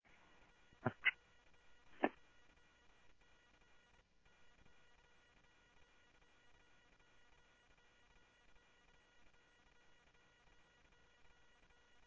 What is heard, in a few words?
Police, scanner audio trunk; H-Scottsdale